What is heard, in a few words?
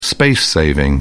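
"space saving", English, British English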